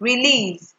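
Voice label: "release", Nigerian Pidgin, female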